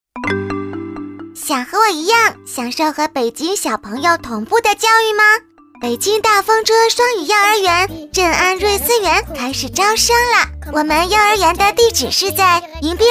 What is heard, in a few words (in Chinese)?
女国 广告 招聘 女童幼儿园招生